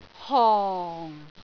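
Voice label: "hong4", Cantonese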